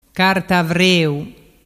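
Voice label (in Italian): "carta avreu", dialect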